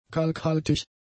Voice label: "kalkhaltig", German; adjectives

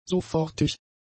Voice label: "sofortig", german; adjectives

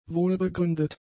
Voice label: "wohlbegründet", German; adjectives

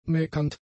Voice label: "meckernd", German; adjectives

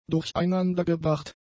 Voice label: "durcheinandergebracht", German; adjectives

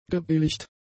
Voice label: "gebilligt", German; adjectives

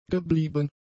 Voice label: "geblieben", German; adjectives

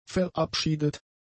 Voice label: "verabschiedet", German; adjectives

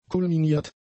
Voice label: "kulminiert", German; adjectives

